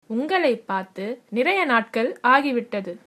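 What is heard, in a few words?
long time no see, tamil, female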